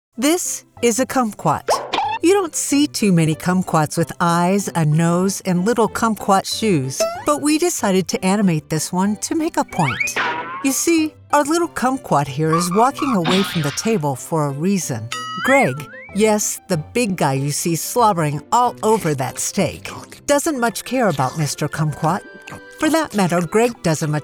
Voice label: explainers narration